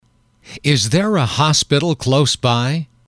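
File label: "is there a hospital close by", English, male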